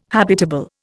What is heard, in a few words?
"habitable", Indian